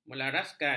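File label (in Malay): "melaraskan", Malay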